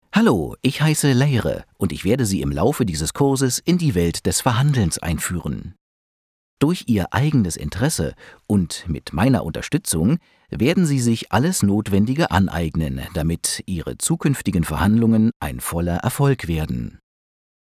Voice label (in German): de elearning, deutsch maenner; sprecher